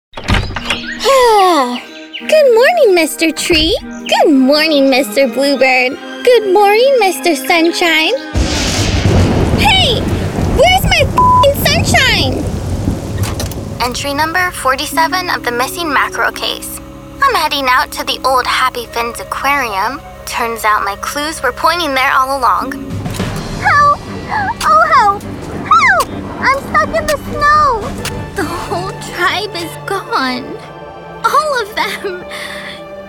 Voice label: US English Female Animation